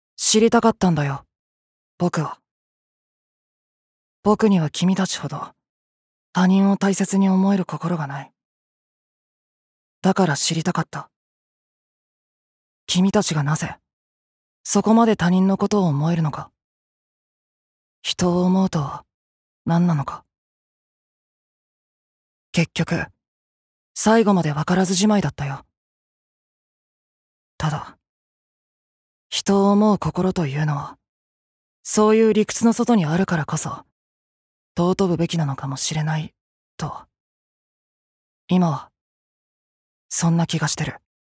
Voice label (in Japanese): アニメ